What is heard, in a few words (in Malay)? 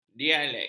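"dialek", Malay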